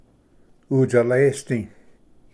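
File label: "utsalesdi", Cherokee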